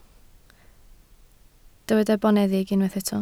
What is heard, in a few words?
"boneddig", Welsh